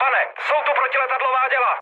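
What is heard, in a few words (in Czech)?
Czech damaged anti-aircraft, mood medium; War Thunder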